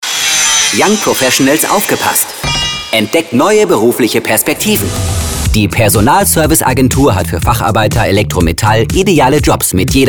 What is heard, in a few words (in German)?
Spot; PersAgentur